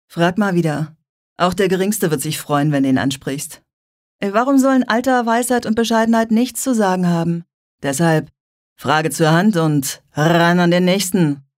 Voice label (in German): Werbung, Cool